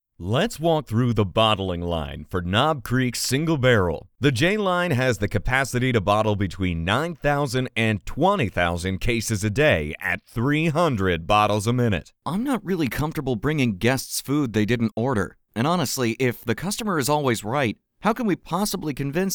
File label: eLearning